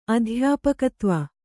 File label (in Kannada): "ಅಧ್ಯಾಪಕತ್ವ", Kannada